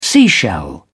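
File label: "seashell", British English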